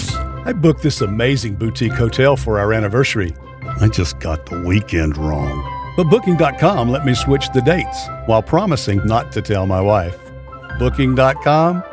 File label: Travel Ad